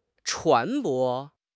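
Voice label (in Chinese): "船舶", Mandarin Chinese; HSK6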